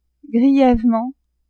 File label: "grièvement", French; adverbs